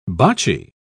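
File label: "bocci", British English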